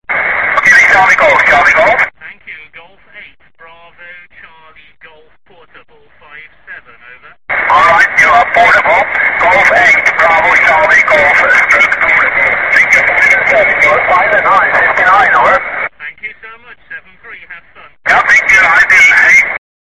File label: QSO